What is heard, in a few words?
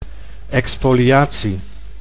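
"exfoliatie", Dutch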